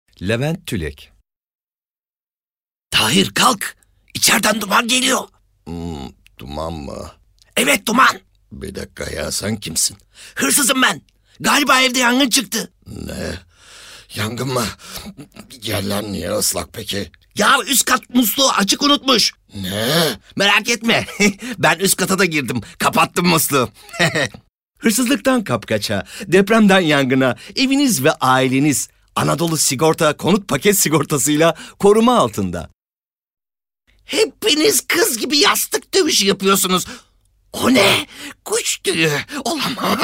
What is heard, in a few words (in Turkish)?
animasyon